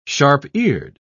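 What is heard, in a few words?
"sharp-eared", British English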